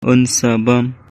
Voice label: Khasi; He will eat.; Un sa bam.